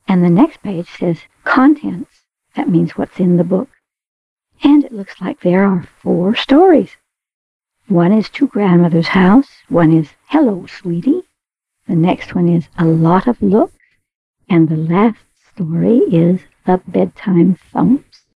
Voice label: voice over story; post-production